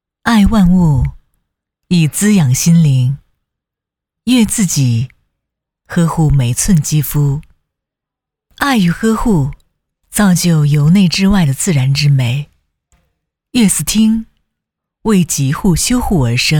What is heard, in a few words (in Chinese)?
女 深沉高端